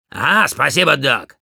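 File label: thanks for the heal, Russian; Demoman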